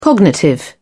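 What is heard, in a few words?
"cognitive", British English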